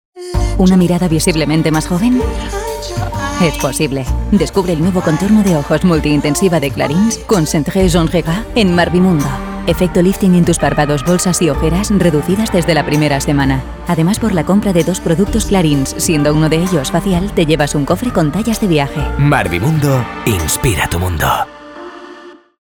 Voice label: Spanish Commercial